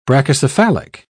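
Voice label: "brachycephalic", English, British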